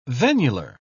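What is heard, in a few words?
"venular", British English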